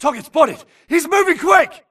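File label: grunt target Player Moving Mid; imc; Titanfall 2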